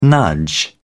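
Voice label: "nudge", British English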